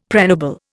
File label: "pregnable", Indian; Dictionary